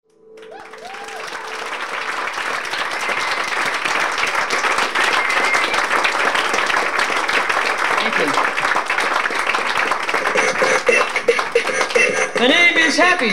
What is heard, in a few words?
patter very white sound effects squeaky toys; Tin Angel